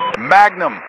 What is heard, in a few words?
Radio pilot Launch Magnum